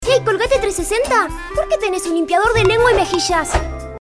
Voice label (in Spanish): Nino; Colgate